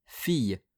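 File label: "filles", French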